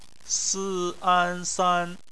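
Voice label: "san" bopomofo, Chinese; phonetics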